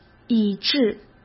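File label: "以致", Mandarin Chinese; chinese-flashcards; hsk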